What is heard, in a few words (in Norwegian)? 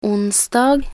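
"onsdag", Norwegian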